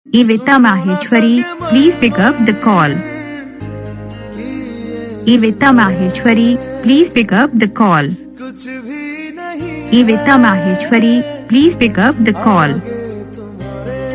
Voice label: please pickup call saying, Ringtones; Your Name Ringtones A To Z Names